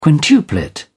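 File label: "quintuplet", English, uk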